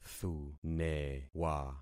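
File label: "thunewa", concatenated syllables; Affixing experiment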